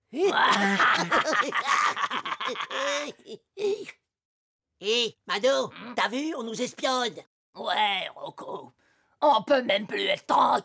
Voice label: dessin animé; ATOUT 5; Mr et Me Pou

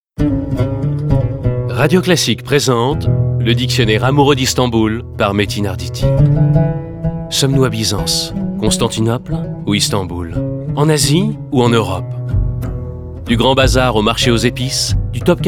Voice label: Spot; Istanbul

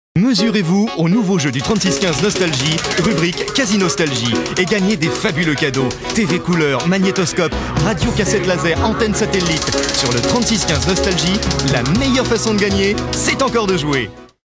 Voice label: JEU, voix-off; Nostalgie

